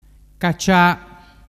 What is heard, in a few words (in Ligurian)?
"cacia", dialettu